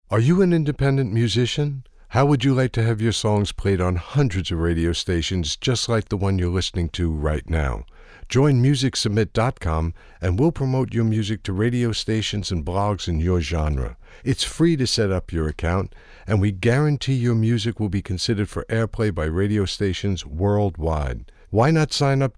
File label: commercial